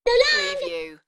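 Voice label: Toy Voice So Long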